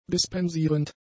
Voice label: "dispensierend", German; adjectives

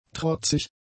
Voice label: "trotzig", German; adjectives

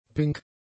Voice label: "pink", German; adjectives

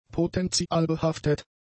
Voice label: "potenzialbehaftet", German; adjectives

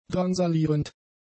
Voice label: "drangsalierend", German; adjectives

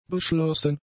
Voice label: "beschlossen", German; adjectives

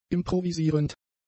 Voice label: "improvisierend", German; adjectives

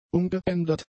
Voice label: "umgeändert", German; adjectives

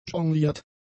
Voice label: "jongliert", german; adjectives

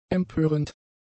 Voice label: "empörend", german; adjectives

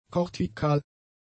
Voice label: "kortikal", German; adjectives

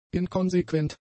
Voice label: "inkonsequent", German; adjectives

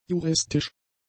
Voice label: "juristisch", german; adjectives

